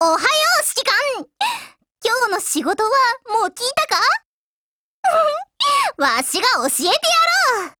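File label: HELLO, Japanese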